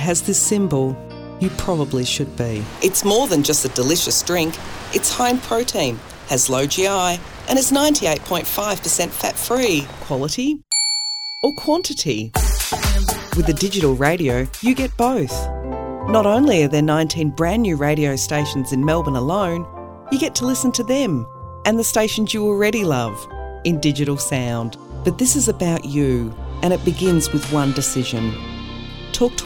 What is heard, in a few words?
Voice Over